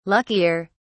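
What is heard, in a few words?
"luckier", British English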